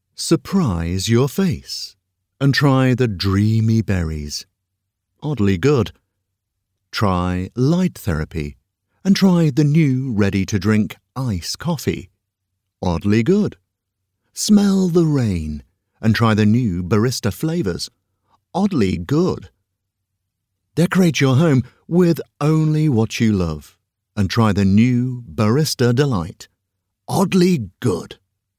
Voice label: voiceover commercial advert; oddlygood

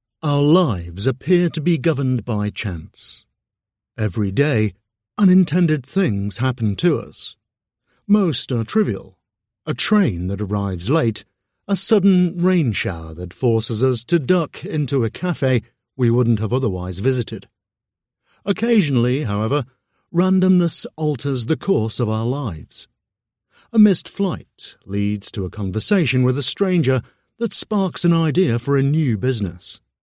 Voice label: Health Wellbeing, audiobooks; British

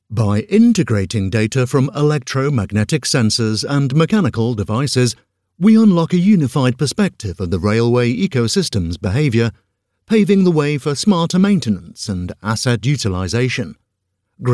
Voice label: voiceover narrative technical explainer

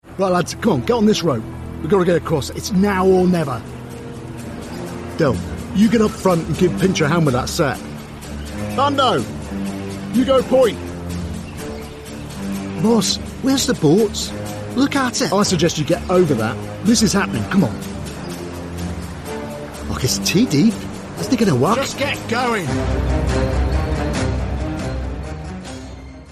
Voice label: voiceover narrative character

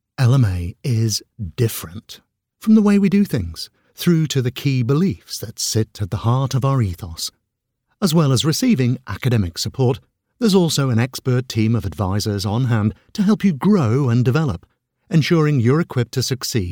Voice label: narrative commercial long form

